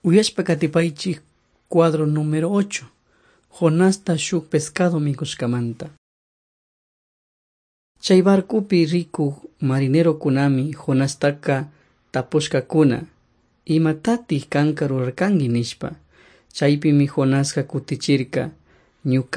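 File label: quichua chimborazo, drama; on trial for god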